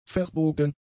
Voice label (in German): "verbogen", German; adjectives